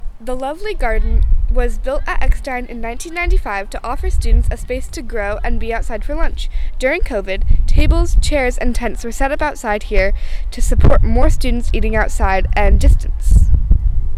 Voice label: Garden Narration